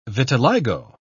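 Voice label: "vitiligo", English, British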